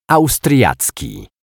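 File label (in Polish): "austriacki", Polish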